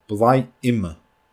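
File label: "bloigh ime", Scottish Gaelic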